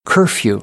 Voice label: "curfew", American English; ɝː - ɜː

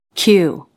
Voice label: "cue" kjuː

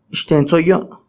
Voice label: "ixtentsoyo", Náhuatl; pestaña; eyelash

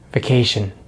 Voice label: "vacation", English; Vowels in American English; easypronunciation

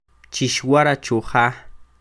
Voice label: Chixwara cho ja, Quiché; ¡Duerman en casa!; INALI; UTexas; CHQETA

